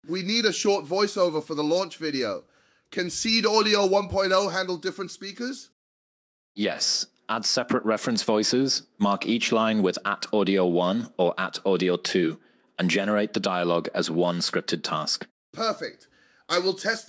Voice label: two speaker dialogue; Seed Audio 1.0 API; how to use seed audio 1.0 api